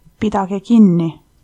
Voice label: stop, estonian